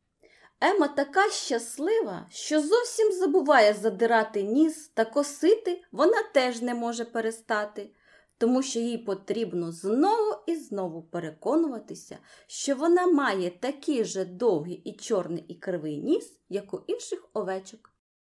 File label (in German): ukrainisch